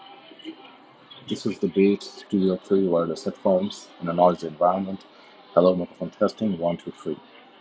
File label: noisy; Beats Studio3